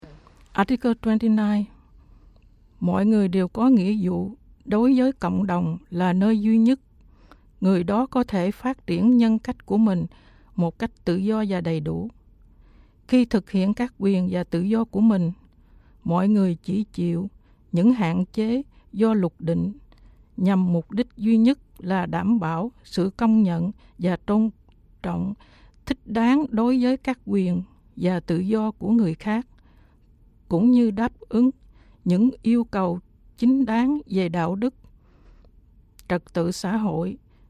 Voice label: Vietnamese